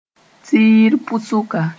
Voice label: "tiir pʋsʋka", Moore; half perimeter